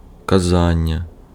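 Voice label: Ukrainian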